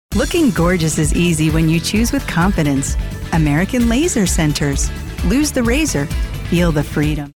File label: ad; Laser Centers